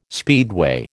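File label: "speedway", American English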